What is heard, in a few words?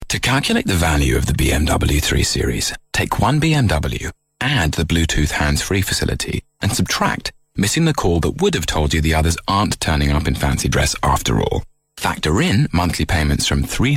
ad; bmw blutooth